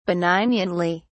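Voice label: "benignantly", English, British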